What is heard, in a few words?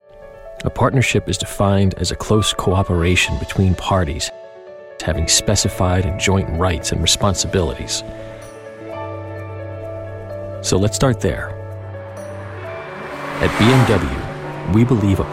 Conversational Narration